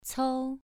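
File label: "cou" Mandarin, second tone, pronunciation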